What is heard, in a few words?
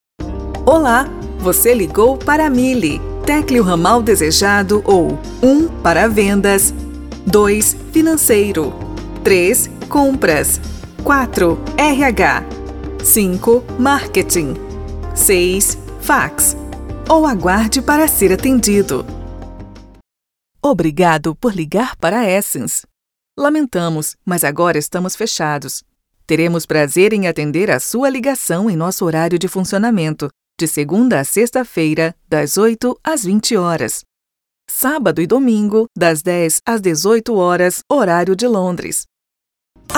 ivr phone system